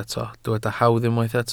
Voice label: "hawdd", Welsh

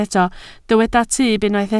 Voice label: "tyb", Welsh

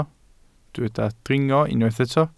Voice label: "dringo", Welsh

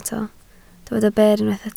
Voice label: "ber", Welsh

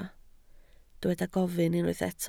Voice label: "gofyn", Welsh

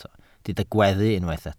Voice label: "gweddu", Welsh

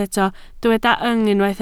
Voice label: "yng", Welsh

